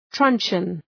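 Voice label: "truncheon", English; {‘trʌntʃən}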